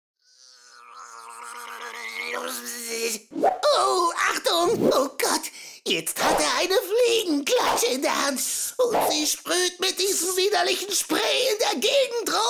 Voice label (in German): trickstimme moskito; LOFT VOICES